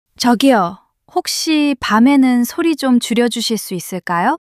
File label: fluster